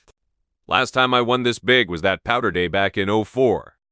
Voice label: happy, speech; fertig classic games